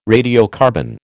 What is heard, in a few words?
"radiocarbon", American